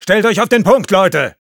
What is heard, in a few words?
Sniper fight on cap, de